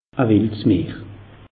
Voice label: "wildsmeer", alsace; meteo

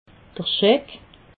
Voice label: "scheck", alsace

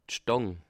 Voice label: "stang", alsace

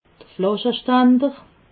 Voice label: "flaschestander", alsace

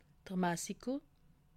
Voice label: "massicot", alsace

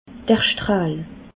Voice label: "strahl", alsace

